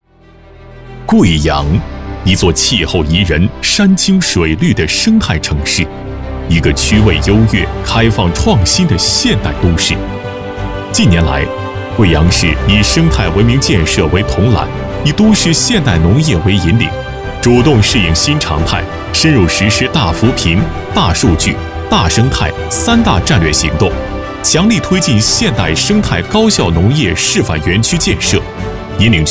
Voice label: 男 专题; 贵阳生态农业

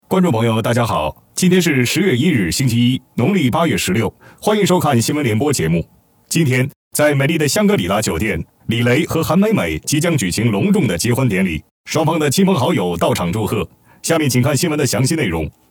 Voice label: 男 模仿 新闻联播

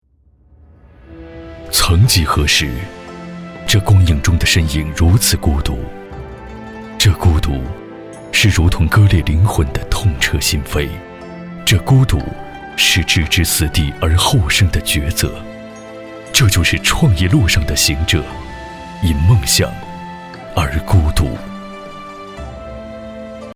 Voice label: 男 旁白

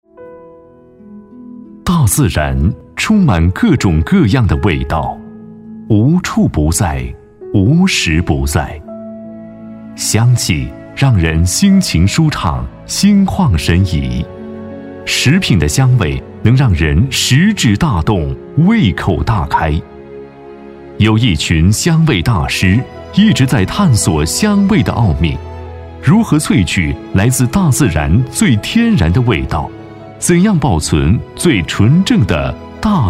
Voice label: 男 专题; 食品香味大师

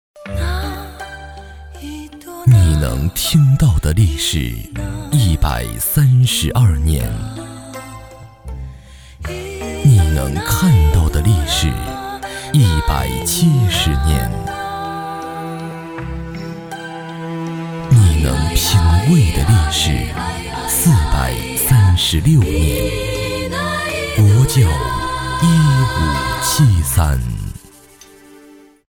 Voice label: 男 专题 模仿; 国窖1573

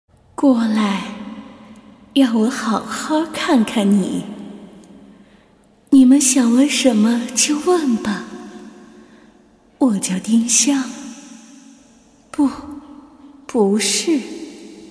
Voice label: 女 动漫 女鬼

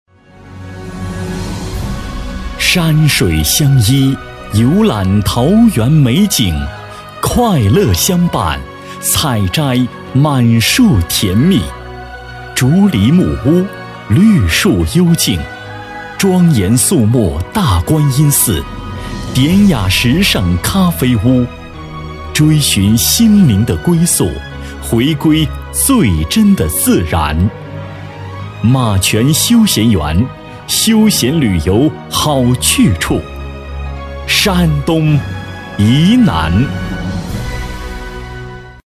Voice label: 男 广告 城市广告; 沂南城市广告

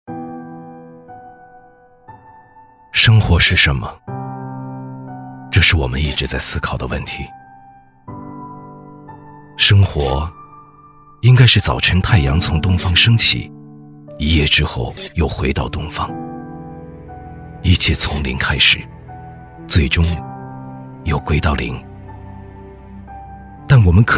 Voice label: 男 旁白; 生活是什么

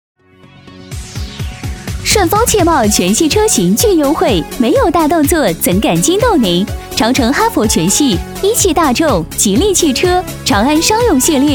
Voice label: 女4-促销, 女; 顺丰汽贸

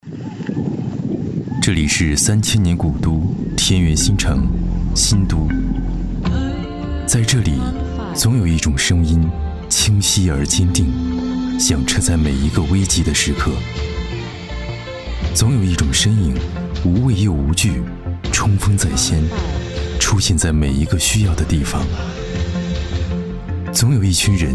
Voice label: 男 专题 平静走心; 新都区形象

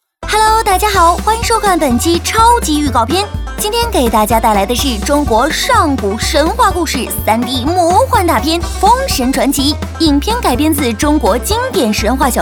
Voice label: 女 超级预告片; 飞碟说

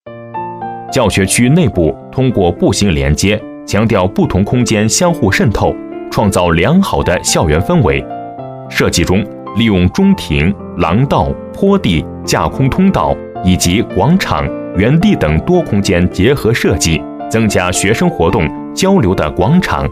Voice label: 男 项目介绍 小学; 辛岭

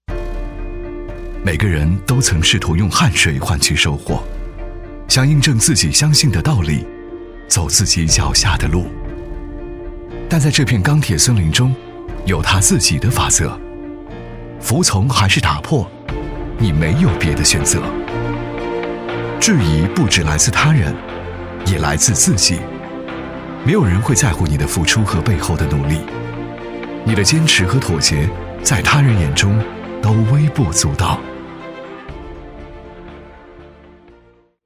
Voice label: 男 旁白 坚定，年轻; 人才微电影

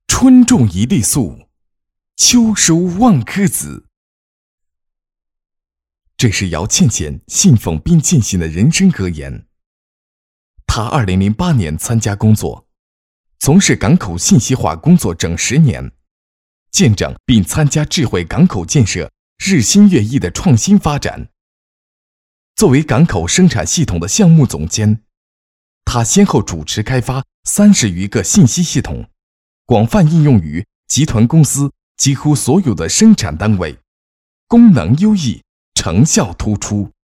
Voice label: 男96-专题-专题（人物）

